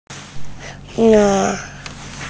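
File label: "le4", Mandarin Chinese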